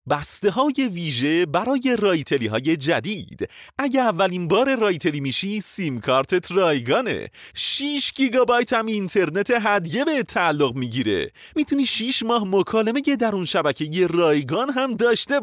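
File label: Farsi, Iran, male; farsi voice over talent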